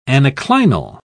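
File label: "anaclinal", British English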